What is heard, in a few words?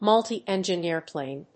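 "multiengine airplane", English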